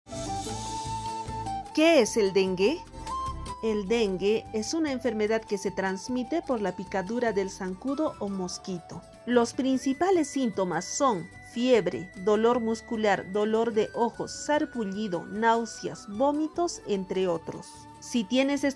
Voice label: castellano pista andina, spot de radio; que es el coronavirus

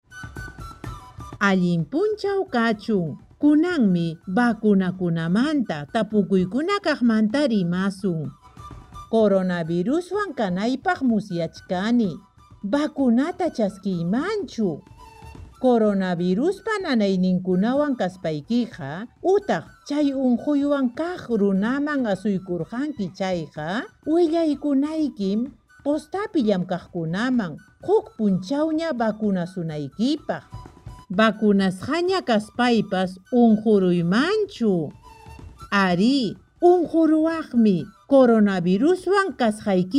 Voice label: quechua chanka microprograma preguntas y respuestas, spot de radio; microprograma 2; vacuna contra el coronavirus

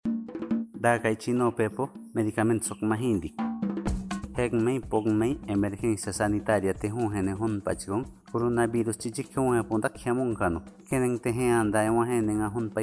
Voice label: harakbut, spot de radio; tomar medicamentos con precaucion; sospecha o confirmacion de contagio

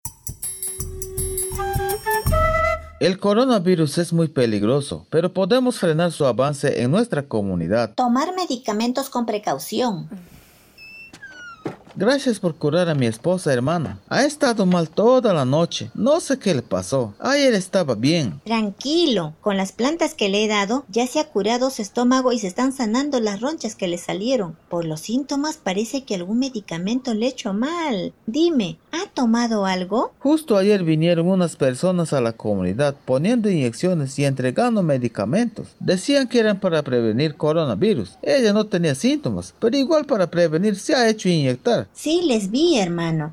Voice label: castellano 2 voces; tomar medicamentos con precaucion; sospecha o confirmacion de contagio; pista amazonica

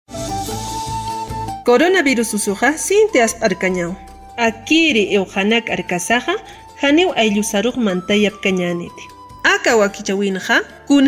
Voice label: aimara uso de mascarillas 1 voz, spot de radio; medidas de prevencion

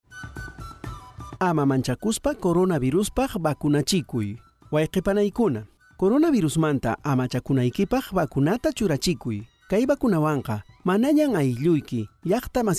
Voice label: quechua cusco collao, spot de radio; vacunate sin miedo contra el coronavirus

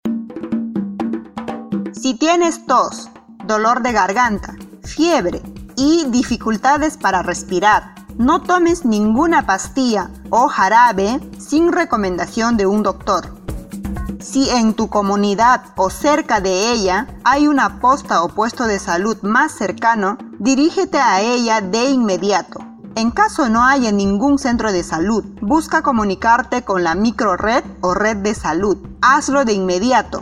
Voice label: castellano, spot de radio; en caso de presentar sintomas; sospecha o confirmacion de contagio; pista amazonica

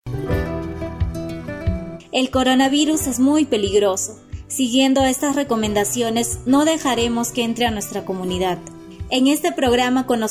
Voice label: castellano, uso de mascarillas, voz, spot de radio; pista andina; 1 voz; medidas de prevencion

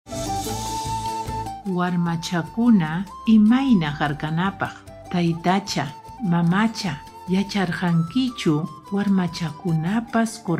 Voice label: quechua chanka, spot de radio; cuidado de ninas y ninos; sospecha o confirmacion de contagio

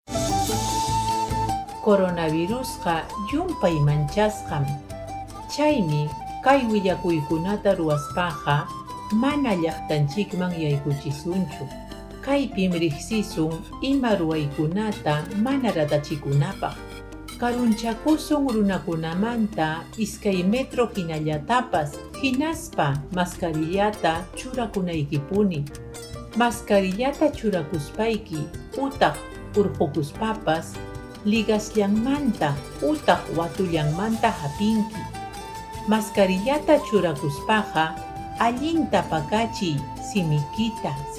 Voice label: quechua chanka uso de mascarillas 1 voz, spot de radio; medidas de prevencion

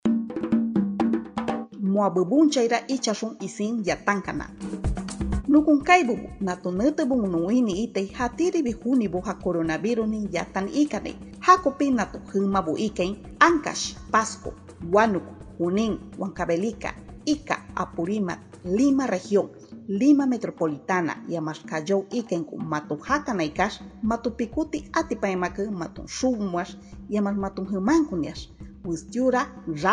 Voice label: shipibo konibo, spot de radio; nuevas medidas extremo; precauciones al salir de mi comunidad

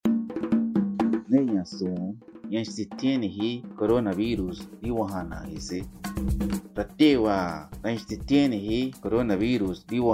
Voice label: yagua, spot de radio; vacunate sin miedo contra el coronavirus

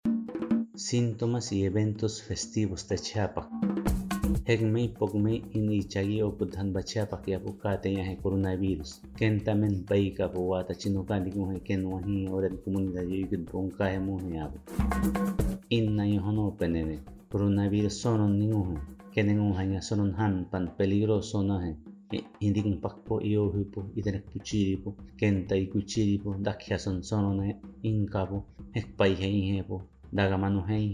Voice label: harakbut, spot de radio; atender sintomas y evitar eventos festivos; sospecha o confirmacion de contagio

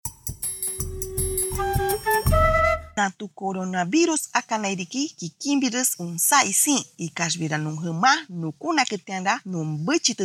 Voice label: shipibo konibo 2 voces, spot de radio; cuidarnos inicio actividades; precauciones al salir de mi comunidad

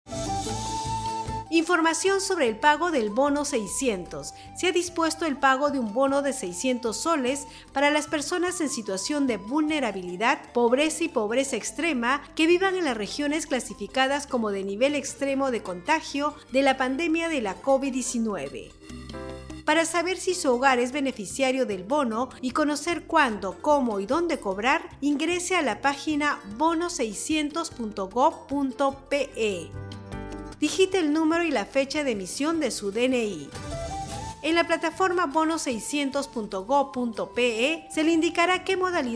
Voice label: castellano pista andina, spot de radio; bono 600; precauciones al salir de mi comunidad

